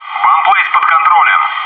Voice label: "bombsite under control", bot; maxpack